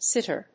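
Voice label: "sitter", English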